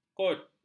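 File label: "kot", Malay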